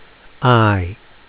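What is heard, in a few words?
name "i", 英語アルファベットの文字の名前; phonics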